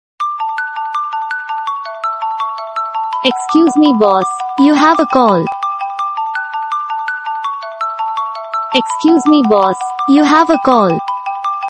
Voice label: excuse me boss you have a incoming call english excuse me boss massage ringtone call ringtone